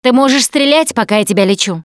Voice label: Heal Other Combat, russian; Producer